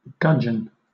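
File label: "gudgeon" British English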